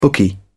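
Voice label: "bookie", British English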